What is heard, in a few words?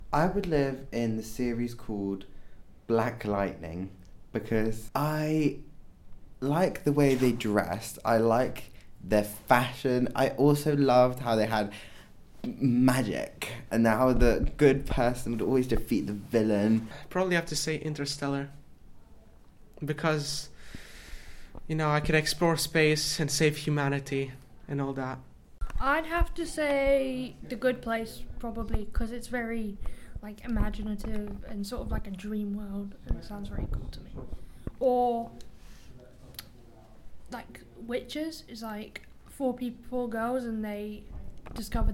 voxpop